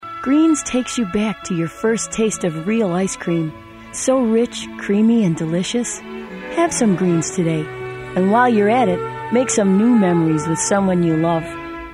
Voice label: wholesome lady; character demo